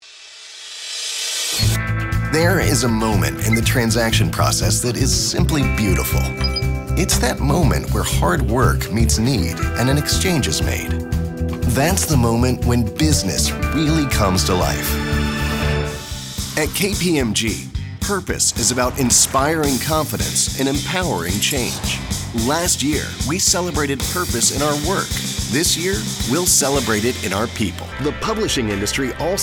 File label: corporate